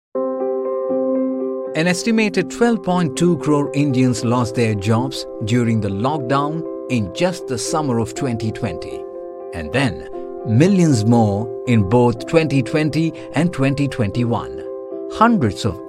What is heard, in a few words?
Corporate AV Audio; 1-Click Capital